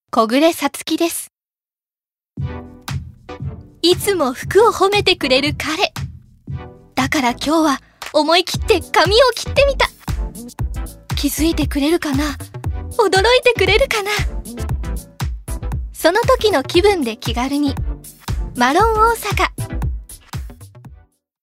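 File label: commercial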